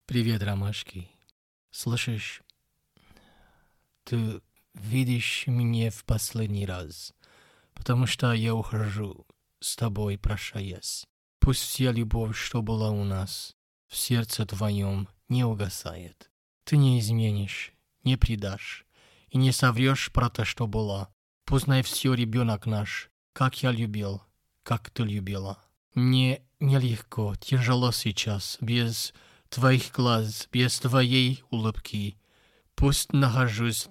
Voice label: Russian narration